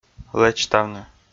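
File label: "лэчтавны", Komi, male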